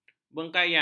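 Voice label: "bengkayang", Malay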